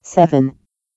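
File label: "seven", English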